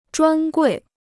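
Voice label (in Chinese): "专柜", Chinese; zhuān guì; เคาน์เตอร์เฉพาะ; เคาน์เตอร์เฉพาะสินค้า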